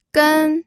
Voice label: "gen1", pinyin audio